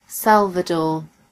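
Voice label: "salvador", British English; gcompris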